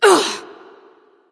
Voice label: freya frustration